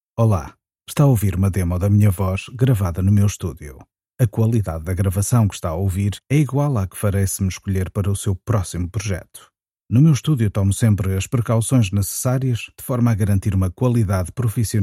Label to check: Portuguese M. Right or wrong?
Right